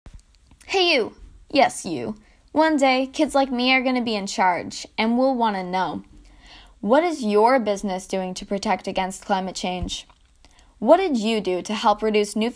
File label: radio ad